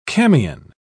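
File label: "camion", British English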